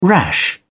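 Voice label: "rash", British English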